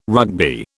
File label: "Rugby", British